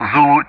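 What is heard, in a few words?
Speech Sound Russian; DCS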